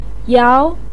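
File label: "yao2" Chinese syllable, pinyin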